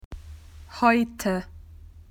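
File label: "today", Australian English; PictureDictionary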